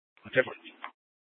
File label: Dispatch; Scottsdale